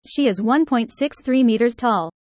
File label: user fast; how tall